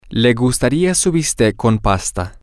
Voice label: he would like his steak with pasta, spanish, F

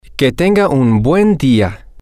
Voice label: have a good day, spanish, M

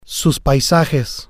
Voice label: "sus paisajes", spanish

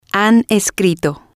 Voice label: "han escrito", spanish

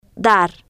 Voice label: "dar", Spanish

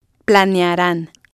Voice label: "planearan", spanish

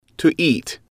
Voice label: "to eat", English

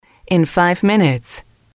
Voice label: in 5 Minutes, F

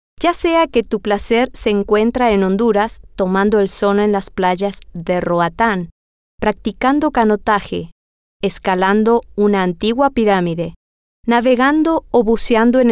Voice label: Spanish

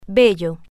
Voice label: "bello", spanish

